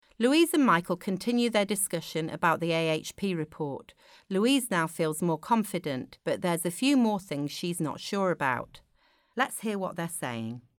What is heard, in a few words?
narration